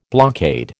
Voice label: "blockade", American